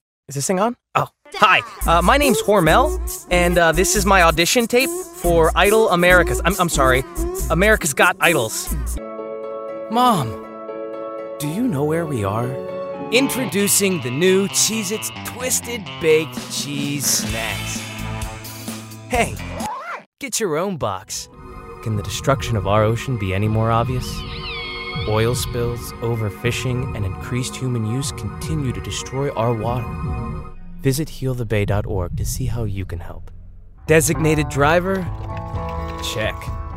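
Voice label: US English, commercials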